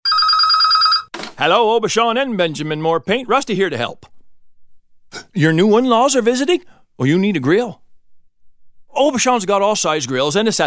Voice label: Phone CALL